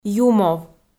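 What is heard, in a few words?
"юмов", Komi, female; kpv audio dict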